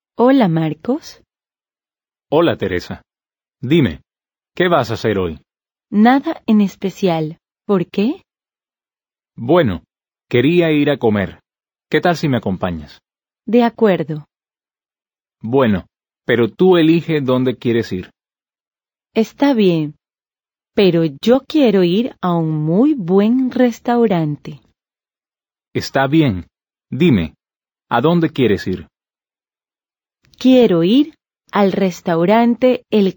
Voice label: Dialogue, Spanish